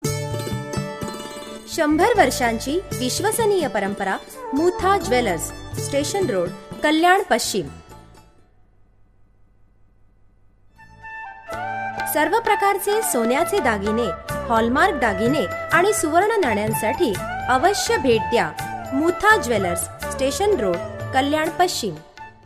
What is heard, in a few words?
Marathi Radio Ad; Mutha Jwellers